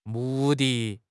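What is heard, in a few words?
"mu4 di4", Mandarin Chinese; HSK4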